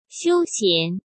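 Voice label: "休闲", Mandarin Chinese; chinese-flashcards; hsk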